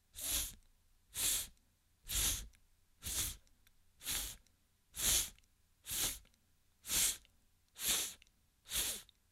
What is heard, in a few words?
sound f, pronunciation